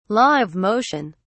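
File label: "law of motion", British English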